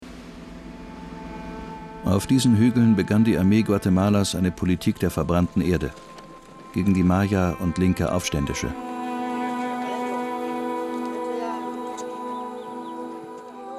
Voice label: Kommentar

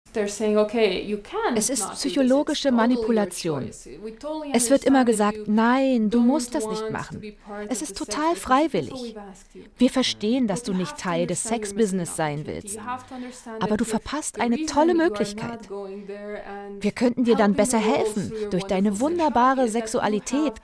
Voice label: Voice Over; ntv

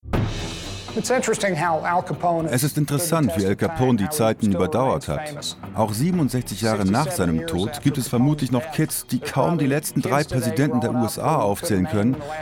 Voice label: Doku

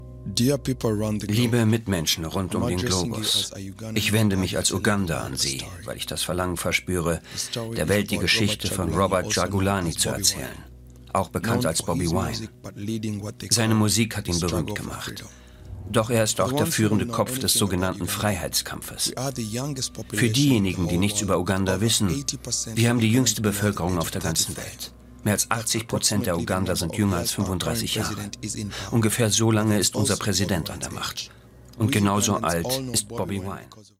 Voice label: Doku; DW; Bobby Wine

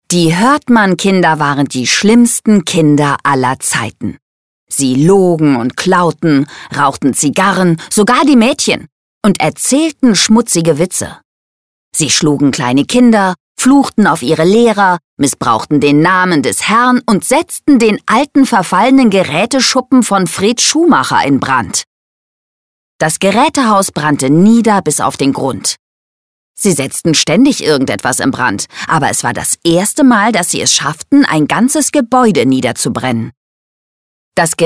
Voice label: Kinderbuch, Audiobook (Hörbuch); Demo Kinderbuch

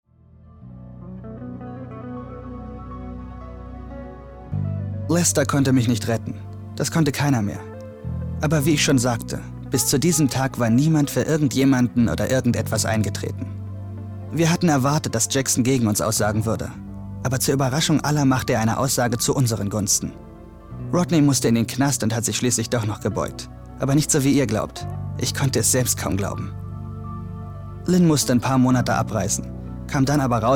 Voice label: synchron

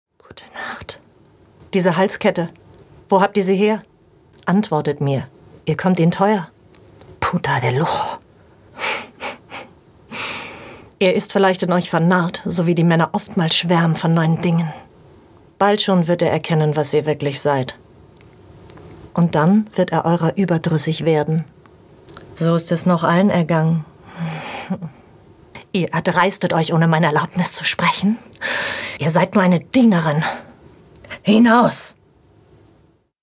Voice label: Synchron historisch authoritaer hart

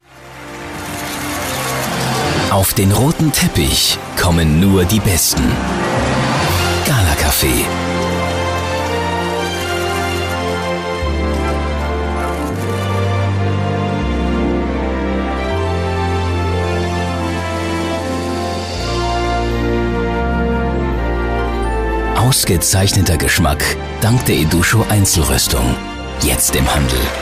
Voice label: werbespot; eduscho gal; LOFT VOICES

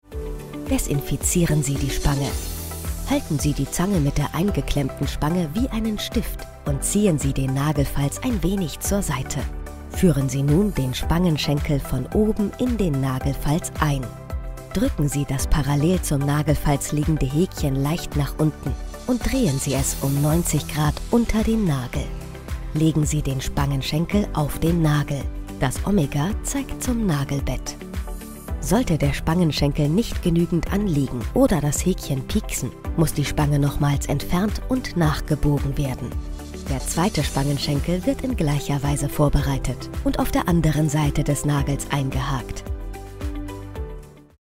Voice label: Lehrfilm; 3TO Loop; LOFT VOICES

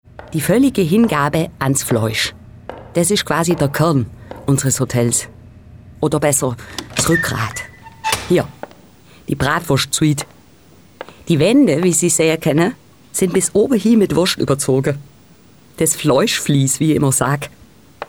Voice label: Algaeuisch

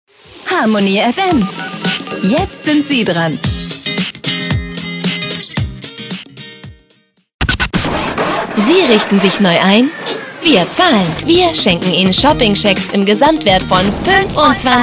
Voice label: Stationvoice Mix ruhig, Station Voice, German; Stationvoice Harmony FM

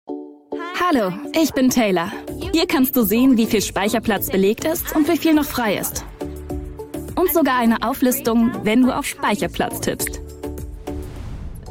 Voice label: E-Learning; Hallo Ich Bin Taylor; LOFT VOICES

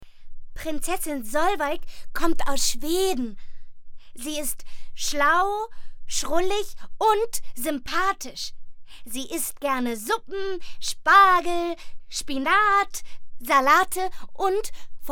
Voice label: Junges Maedchen

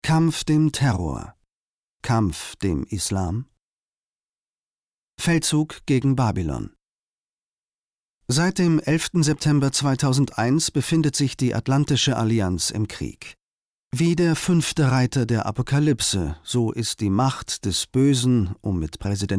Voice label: kommentar

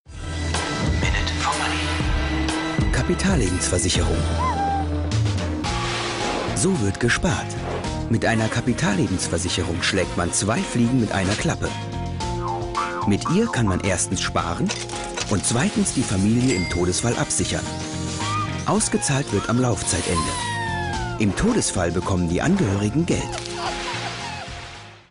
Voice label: TV Beitragsreihe; ZDFinfo; Minute4Money

